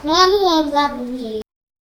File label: two little lebanese kids, arabic